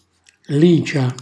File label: "licia", Calabrese; alice (pesce azzurro); cucina calabrese; Glossario